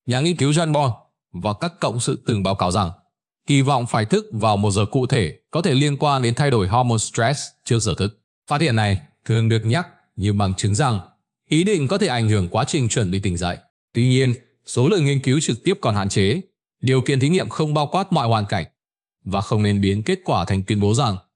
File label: narration